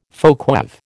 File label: "folkweave", American